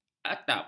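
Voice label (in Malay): "atap", Malay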